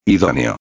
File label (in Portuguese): "idoneo", espanhol